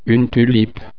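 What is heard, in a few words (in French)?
"tulipe", French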